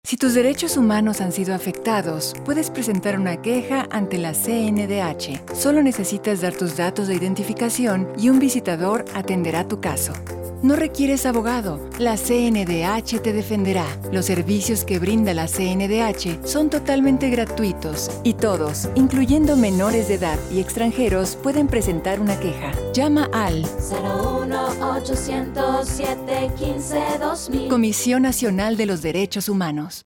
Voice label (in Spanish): Spot esp; Campanas; CNDH